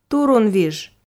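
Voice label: "турунвиж", Komi, female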